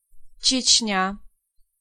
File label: "Chechnya", Pronunciation